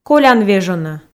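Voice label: "колян вежонӧ", Komi, female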